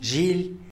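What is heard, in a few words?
"zhily", Tlacochahuaya Zapotec; cotton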